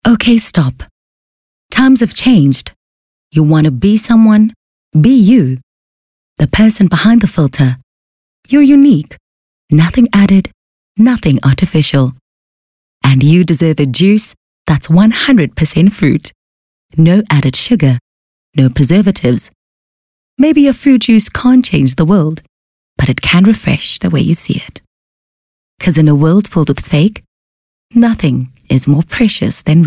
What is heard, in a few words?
English, soft sell